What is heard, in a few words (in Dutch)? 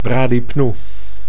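"bradypnoe", Dutch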